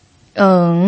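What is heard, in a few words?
"eng", Mandarin Chinese, third tone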